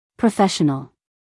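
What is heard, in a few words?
"professional", American English, female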